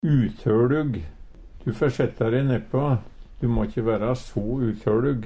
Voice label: utøLug, Numedalsmål; Adjektiv

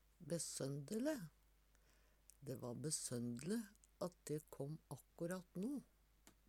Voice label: besondele, Numedalsmål; Dæ va besøndele at de kåm akkutat no.

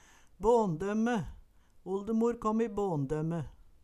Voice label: "båndømme", Numedalsmål; Oldemor kom i båndømme

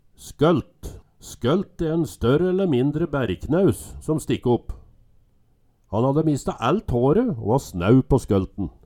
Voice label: "skolt", Numedalsmål

